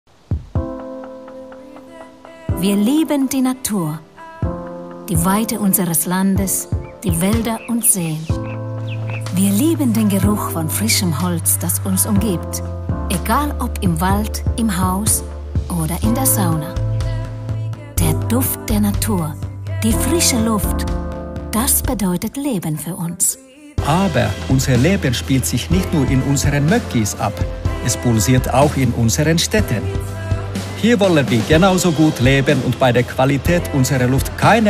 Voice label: female German with Finnish accent TV commercial; vallox; Devine Voices